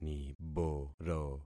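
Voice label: "niboro", concatenated syllables; Affixing experiment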